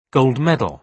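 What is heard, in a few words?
"gold medal", British English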